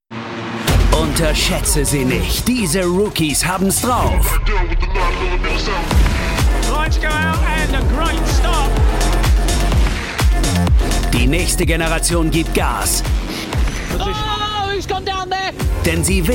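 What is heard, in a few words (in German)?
Trailer, Sprecher, Männer; RB Rookies Cup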